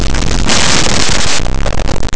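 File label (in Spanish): "padre", Spanish, aproximants; laboratori fonetica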